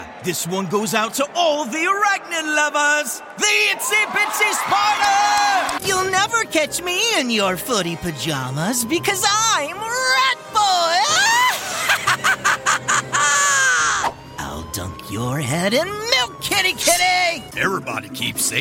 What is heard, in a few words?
Animation